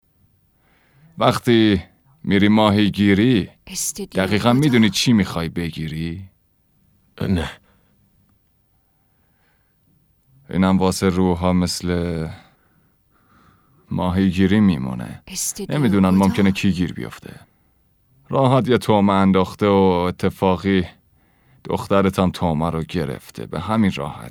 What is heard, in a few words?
dub